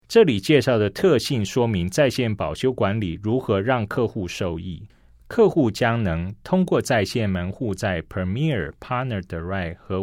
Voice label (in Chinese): CHINESE